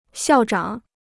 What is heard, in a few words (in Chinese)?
"校长", Chinese